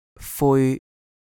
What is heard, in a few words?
"fui1" Cantonese